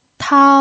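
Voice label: "tao", Mandarin Chinese pinyin, first tone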